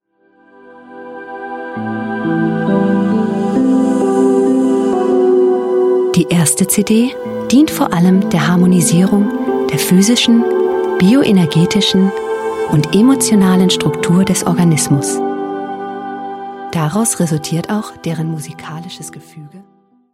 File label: Commercial German F